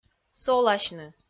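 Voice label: "соласьны", Komi, female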